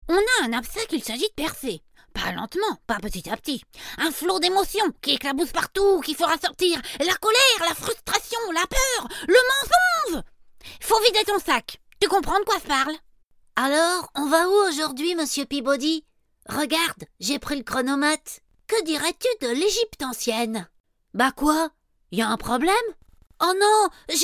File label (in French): Démo animation